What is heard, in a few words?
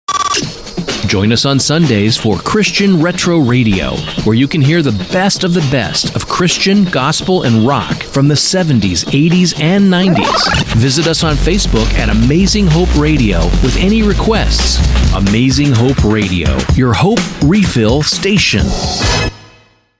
promo; Amazing Hope Radio